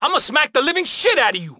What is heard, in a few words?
COP TRAFFIC HISPANIC DRAW BATON; GTA IV